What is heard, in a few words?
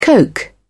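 "coke", British English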